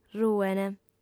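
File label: "ruent", Sardinian, female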